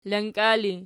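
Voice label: "lanka" ergative, Archi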